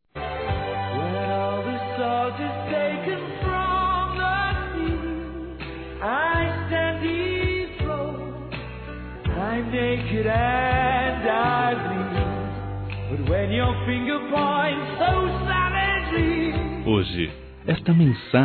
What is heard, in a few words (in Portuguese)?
Padrinho Masc, Telemensagens; Telemensagens 2017